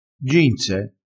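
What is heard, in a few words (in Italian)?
"ginse", vocabolario dialettale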